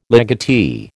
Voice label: "legatee", American

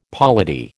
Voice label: "polity", American

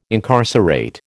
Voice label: "incarcerate", American

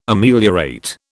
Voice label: "Ameliorate", British

